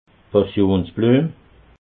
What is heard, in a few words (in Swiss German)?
"passionsbluem", alsace